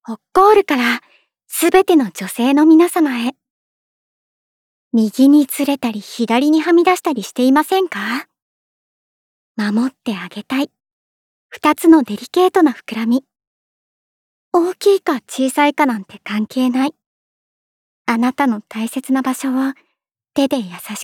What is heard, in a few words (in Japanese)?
ナレーション一般